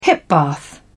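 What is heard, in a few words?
"hip bath", British English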